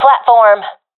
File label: loc platform, professional fem; vo; agents; Source Model Packs; Counter Strike Model Pack; CS2